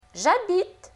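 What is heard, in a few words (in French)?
"J’habite", French; Leçon2.Connaissances; Lingvo; francais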